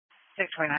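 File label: Police Patrol Dispatch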